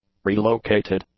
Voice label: "relocated", English; adjectives

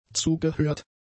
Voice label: "zugehört", German; adjectives

